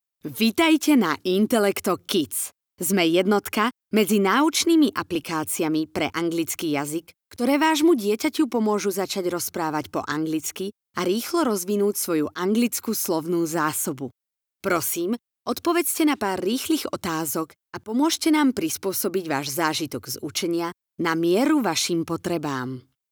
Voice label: slovak promos

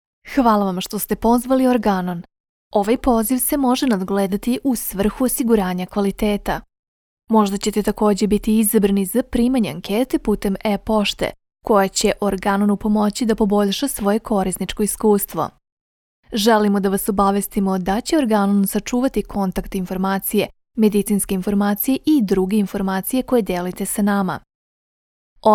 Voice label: serbian ivr; Professional Serbian native voice-over actor from Belgrade

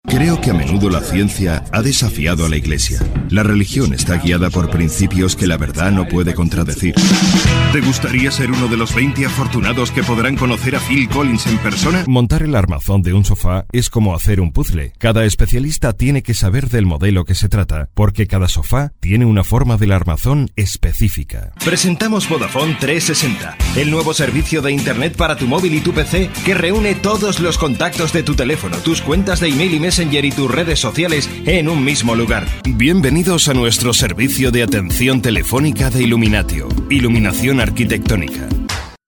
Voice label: spanish narration

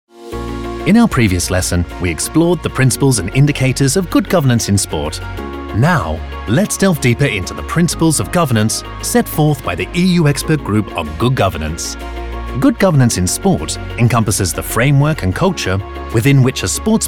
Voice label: english british narration, british voice actors

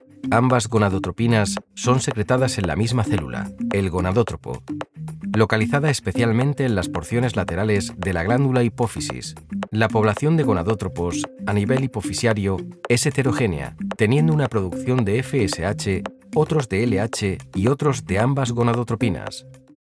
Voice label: spanish e-learning

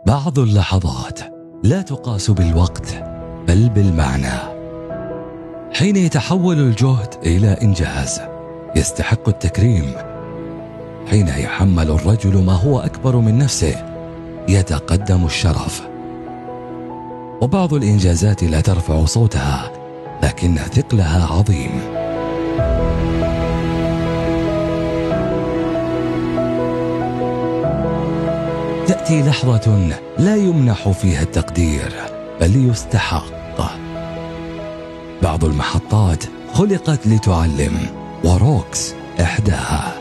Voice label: arabic automotive

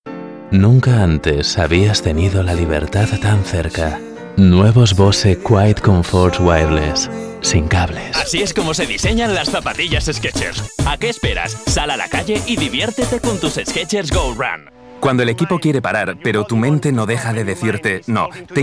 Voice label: spanish castilian narration

